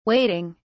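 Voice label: "wading", British English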